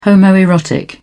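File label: "homoerotic", British English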